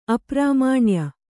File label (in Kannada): "ಅಪ್ರಾಮಾಣ್ಯ", kannada